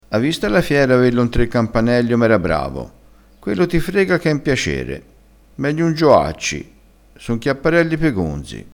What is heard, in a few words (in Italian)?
"chiapparello", crespinese